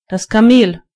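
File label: camel, German voices words; gcompris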